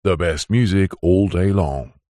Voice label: multimedia radio un processed male voice says the best music all day long